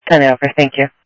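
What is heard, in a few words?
Sheriff East County, scanner audio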